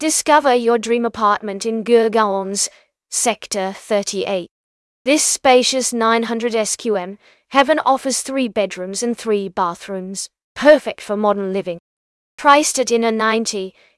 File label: narration audio